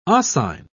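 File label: "oscine", British English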